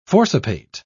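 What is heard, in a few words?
"forcipate", en, uk British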